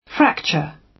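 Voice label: "fracture", British English; Time, history; Thời gian, lịch sử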